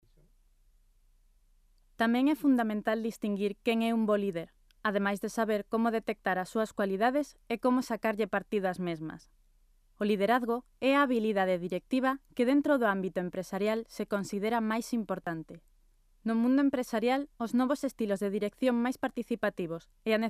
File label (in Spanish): locutora gallega; galician voiceovers